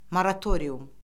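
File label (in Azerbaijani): "moratorium", Azerbaijani; Orfoqrafiya Lüğəti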